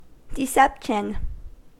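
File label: "deception", US English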